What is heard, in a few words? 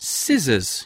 "scissors", English